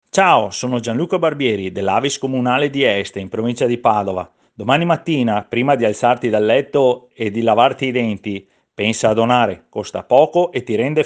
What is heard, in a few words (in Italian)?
promo